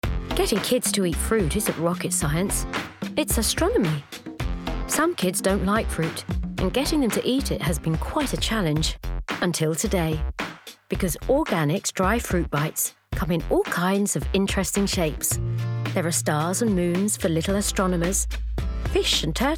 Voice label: Commercial